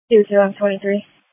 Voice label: Police Tactical Encrypted; sdr